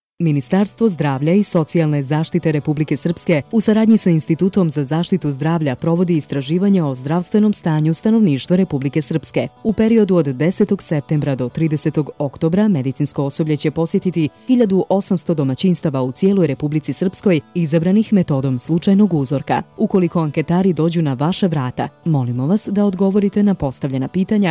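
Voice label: Serbian, female, dynamic; Fremdtake; Dynamisch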